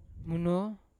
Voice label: "muno", Yawa

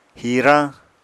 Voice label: "hirañ", apatani

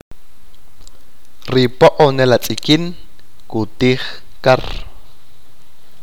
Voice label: "the kingfisher will eat fish", achi

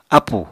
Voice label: "ápù", Apatani

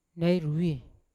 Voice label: "nairoi", Yawa; where; unat

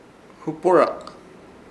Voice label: "huporak" tuyuyu, Ishir Chamacoco

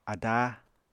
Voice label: "adáa", apatani

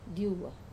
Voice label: "diuwa", Wamesa; downwards

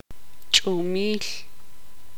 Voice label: tzutujil; estrella

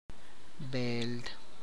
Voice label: "sister of a female", tlacochahuaya

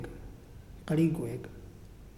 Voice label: Tree, Muyuw; Lae Unitech

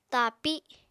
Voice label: "tapi", apatani

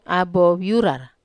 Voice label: "abo viurar", Wamesa

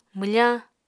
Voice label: "mwfañ", Apatani

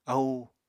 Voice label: "ahú", Apatani

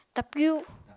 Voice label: "tapyu", Apatani

